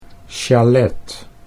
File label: "sjalet", Dutch